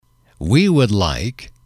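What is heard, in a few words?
we would like, male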